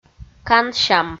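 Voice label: "кансям", Komi, female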